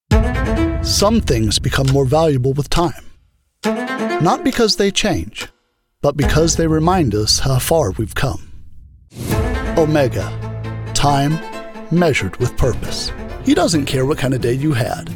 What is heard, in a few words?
voiceover commercial